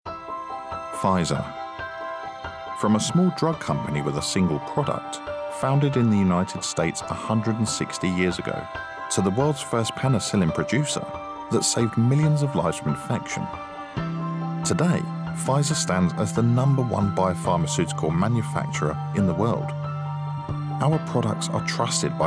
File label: Corporate Reel